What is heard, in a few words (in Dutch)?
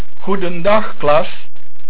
"Klas", Dutch